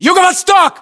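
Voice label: direction relative southeast, Russian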